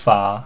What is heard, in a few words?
"fa", pinyin sounds